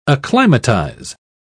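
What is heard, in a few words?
"acclimatise", British English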